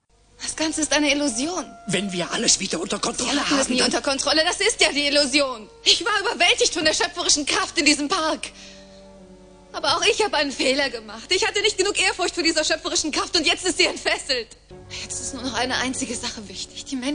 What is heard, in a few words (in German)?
synchro, deutsch frauen; sprecher